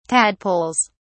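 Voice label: "tadpoles", British English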